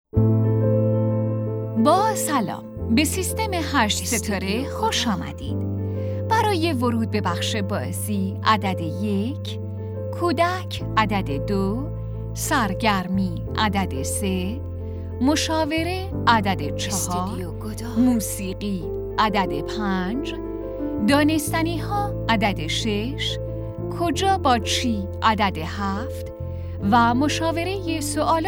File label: IVR